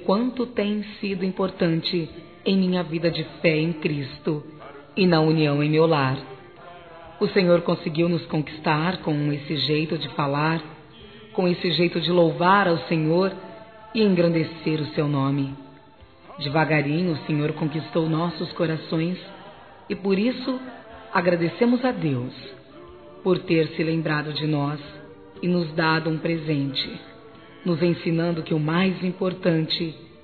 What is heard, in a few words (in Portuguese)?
Trilha ANIV. PASTOR F, Telemensagens Gospel fem; Telemensagens 2017